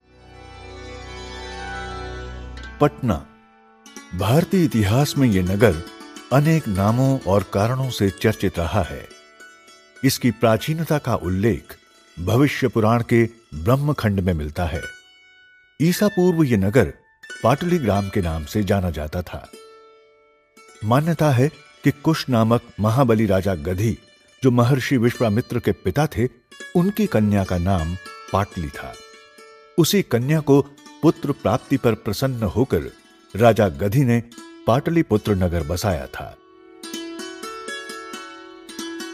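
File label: docu hindi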